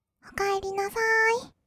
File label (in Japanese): "おかえりなさーい", kawaii voice; ASMR; 挨拶; 1200 kawaii voice!!